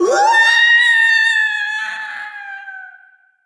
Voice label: Troll VO Fall; Troll VO Fall 03